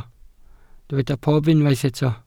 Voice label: "pob", Welsh